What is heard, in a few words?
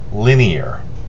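"linear", American English; pronunciations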